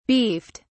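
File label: "beefed", English, British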